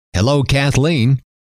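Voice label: "hello", male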